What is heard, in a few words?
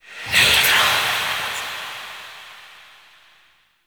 Whisper, NETRA; Kuva Lich; Requiem Mod